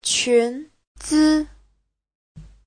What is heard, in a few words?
"qun2zi5", Chinese; 裙子; (danh từ) váy; Giáo trình chuẩn HSK3